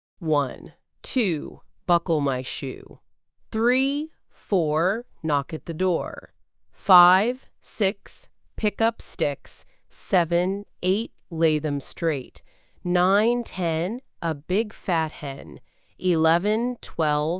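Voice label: female US; One Two Buckle My Shoe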